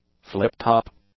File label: "flip-top", english; adjectives